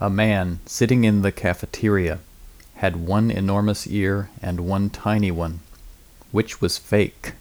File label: speech song